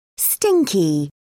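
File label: "stinky", English, British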